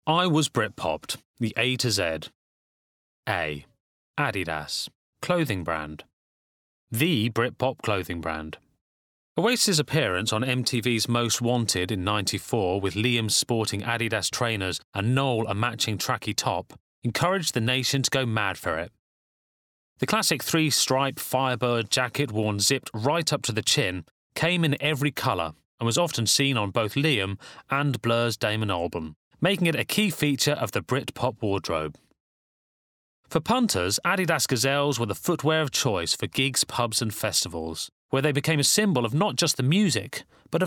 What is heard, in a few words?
Bookread